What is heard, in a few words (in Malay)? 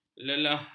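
"lelah", Malay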